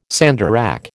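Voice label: "sandarac", American English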